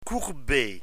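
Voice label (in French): "courber" French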